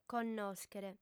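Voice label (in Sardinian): "connòschere", female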